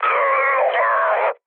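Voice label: Italian damaged pilot mood med, speech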